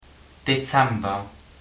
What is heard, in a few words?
december, luxembourgish